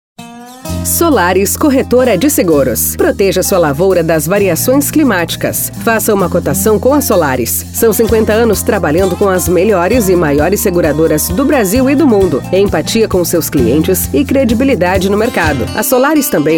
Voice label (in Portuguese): spot simples; SOLARIS CORRETORA DE SEGUROS